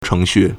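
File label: "chéngxù", Chinese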